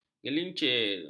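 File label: "gelincir", Malay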